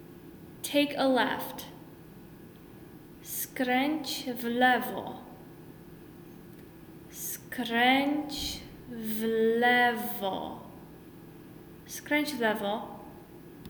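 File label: Take a left, Polish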